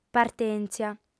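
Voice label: "partèntzia", Sardinian, female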